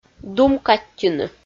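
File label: "дум каттьыны", Komi, female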